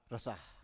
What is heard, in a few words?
"rosa", Biak